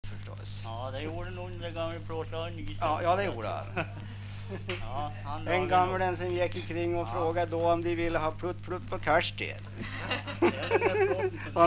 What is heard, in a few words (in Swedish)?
dialekter; Folkminnen